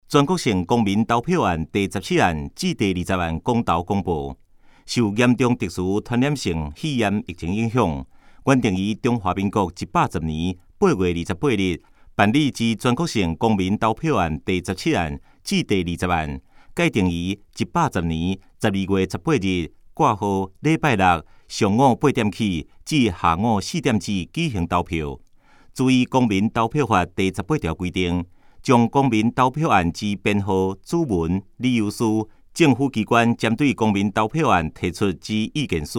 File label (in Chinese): 公報刊頭說明, 臺語; 全國性公民投票; 110第17至20案; 有聲公報